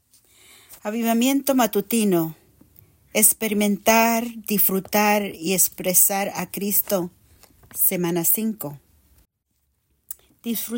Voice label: Spanish; Experiencing Enjoying Expressing Christ Vol1 Week5 Day7